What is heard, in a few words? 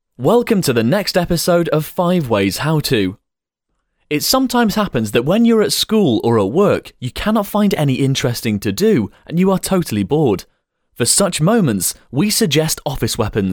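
English, male; lektoring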